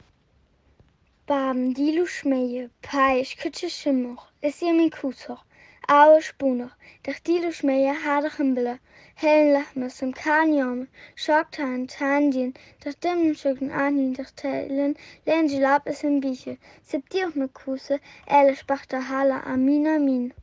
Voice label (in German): Vaterunser aramaeisch; Vater unser auf aramäisch; Familienimpuls